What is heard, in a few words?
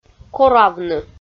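"коравны", Komi, female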